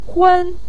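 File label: "hun" neutral tone, Mandarin Chinese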